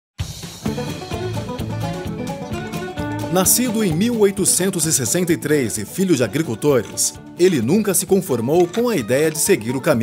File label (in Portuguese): Vídeo motivacional, locutor; Henry Ford